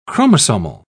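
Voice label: "chromosomal", British English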